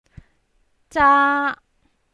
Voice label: "zaa1", Cantonese

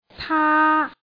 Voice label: "taa", Cantonese

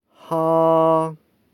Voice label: "haa", Cantonese

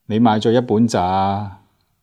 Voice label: "zaa5", Cantonese

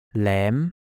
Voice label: "lem2", cantonese

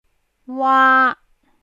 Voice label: "waa1", Cantonese

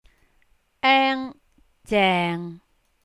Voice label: eng zeng6, cantonese

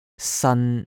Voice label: "san1", cantonese

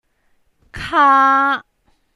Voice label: "kaa", cantonese

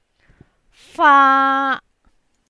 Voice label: "faa1", Cantonese

